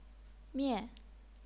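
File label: "mian", fourth tone, chinese pinyin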